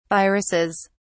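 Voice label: "viruses", English, British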